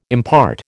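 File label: "impart", American English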